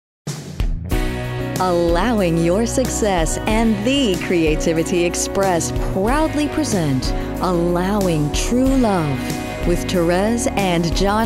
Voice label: Announcer